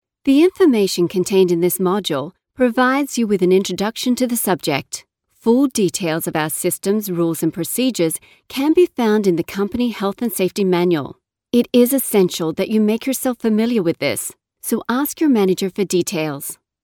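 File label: Australian English, female, voice over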